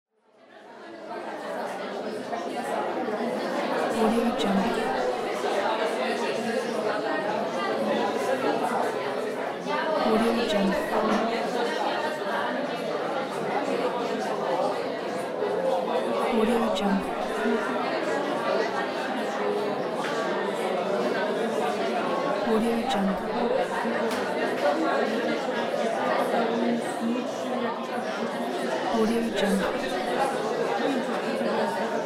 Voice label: students talking in classroom